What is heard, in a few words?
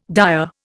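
"dire", Indian English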